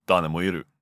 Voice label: neutral short